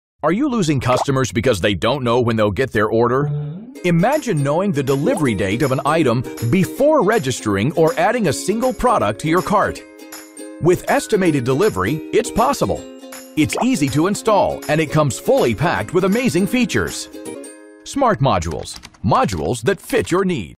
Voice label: EXPLAINER VIDEO AUDIO